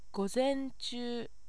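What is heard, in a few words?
Japanese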